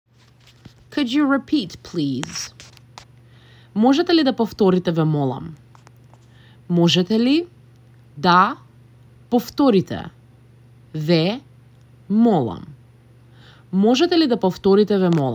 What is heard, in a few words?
Could you repeat please, Macedonian; the talking macedonian phrasebook